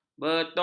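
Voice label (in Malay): "betung", Malay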